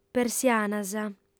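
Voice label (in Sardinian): "persianas", female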